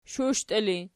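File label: shushtii ergative, Archi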